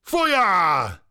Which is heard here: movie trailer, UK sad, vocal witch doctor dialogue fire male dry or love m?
vocal witch doctor dialogue fire male dry